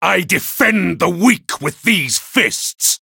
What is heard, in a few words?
variable chant